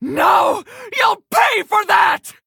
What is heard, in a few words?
Female Fel Orc Play